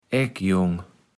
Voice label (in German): "Eggjong", platt